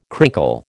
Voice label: "crinkle", American